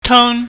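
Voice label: tone high level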